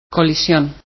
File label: collisio, spanish